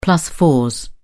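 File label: "plus fours", British English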